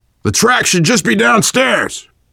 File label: world farmhouse, voice, biker